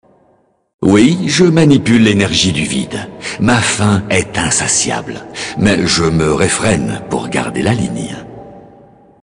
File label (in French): Male Blague, voix françaises; Elfe du Vide; warcraft; races alliées